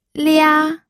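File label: "lia", Mandarin Chinese, first tone; pinyin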